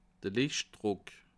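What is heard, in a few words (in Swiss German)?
"lichdruck", Bas Rhin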